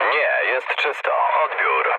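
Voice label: Polish chat; War Thunder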